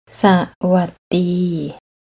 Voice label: "Sawaddee", Thai